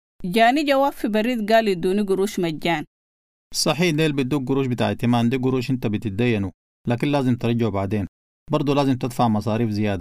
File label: credit sudanese